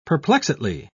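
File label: "perplexedly", British English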